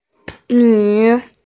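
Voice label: "nv3", Mandarin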